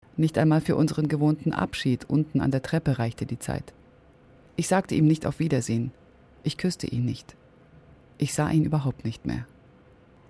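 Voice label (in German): Schauspiel Freundlich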